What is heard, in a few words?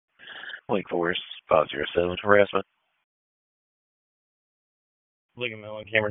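Wake Forest Police Dispatch, p25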